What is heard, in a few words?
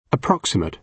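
"approximate", British English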